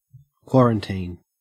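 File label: "quarantine", Australian English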